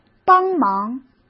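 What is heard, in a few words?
"帮忙", Mandarin Chinese; hsk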